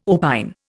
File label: "opine", Indian